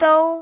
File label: "dou1", cantone; microsoft Hiu Maan